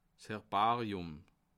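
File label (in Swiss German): "herbarium", Bas Rhin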